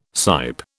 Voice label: "sipe", British